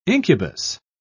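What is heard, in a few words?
"incubus", English, British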